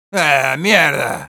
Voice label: negative vocalization, es; Sniper